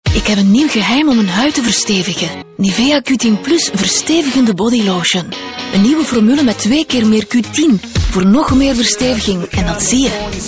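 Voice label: flemish female commercial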